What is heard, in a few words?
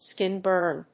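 "skin burn", English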